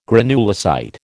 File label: "granulocyte", American